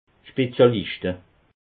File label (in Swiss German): "spezialischte", alsace